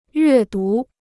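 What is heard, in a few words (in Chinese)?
"阅读" Chinese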